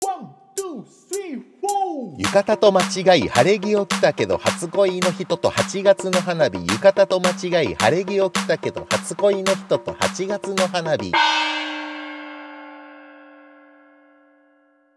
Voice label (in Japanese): 早口言葉リズム（中級編）